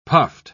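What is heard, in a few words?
"puffed", British English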